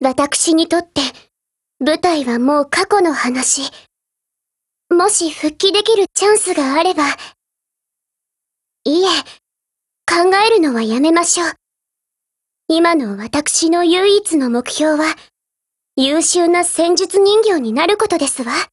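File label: DIALOGUE, Japanese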